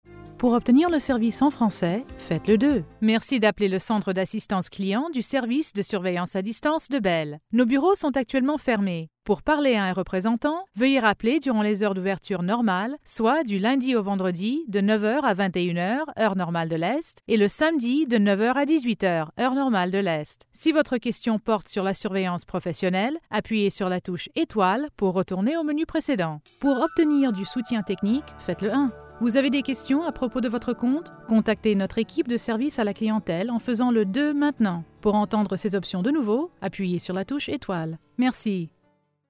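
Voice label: french female voice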